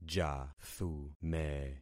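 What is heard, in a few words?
"jathume", concatenated syllables; Affixing experiment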